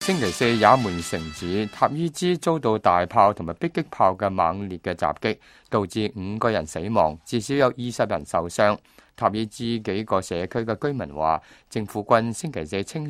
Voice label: cantonese, news